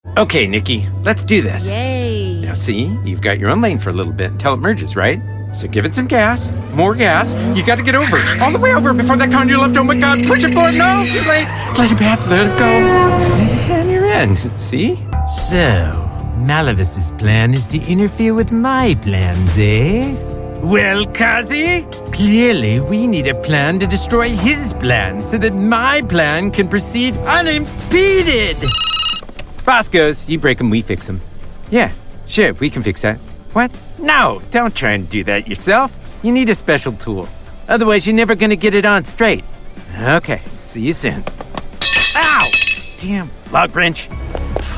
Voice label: vo character